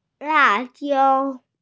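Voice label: "la4jiao1", Mandarin Chinese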